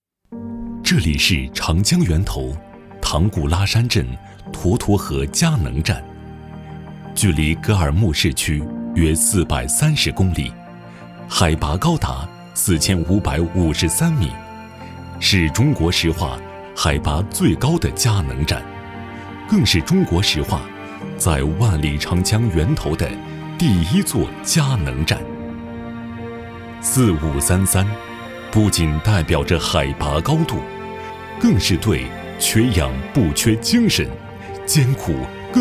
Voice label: 男 专题片 讲述

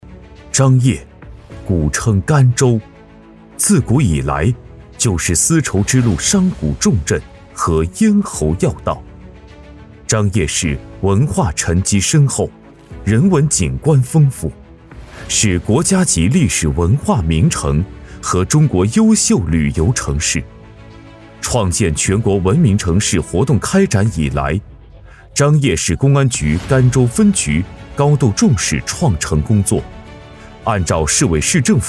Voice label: 男 大气汇报; 张掖市创建文明城市

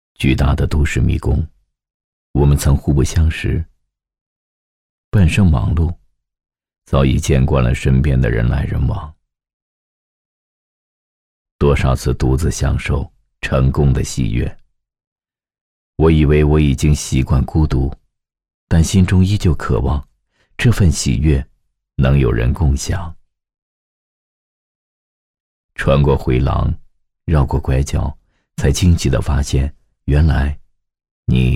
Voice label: 男 你在这里内心独白

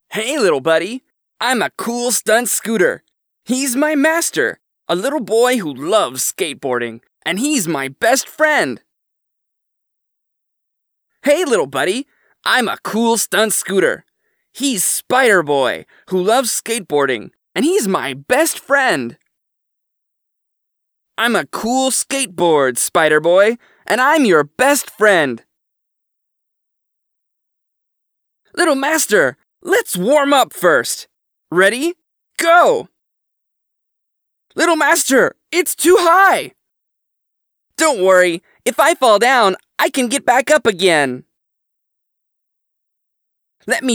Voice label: 外籍男-少儿玩具宣传-角色模仿

